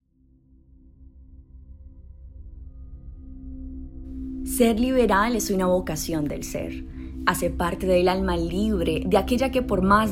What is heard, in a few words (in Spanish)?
voz INSPIRACIONAL